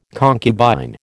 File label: "concubine", American